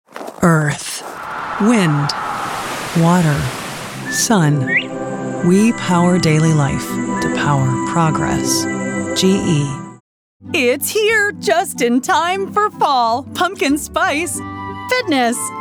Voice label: Voice Over Commercial